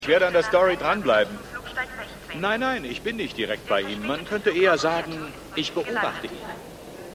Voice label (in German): Flughafen Durchsage